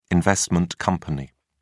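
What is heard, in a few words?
"investment company", British English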